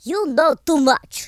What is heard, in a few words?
fatality, Russki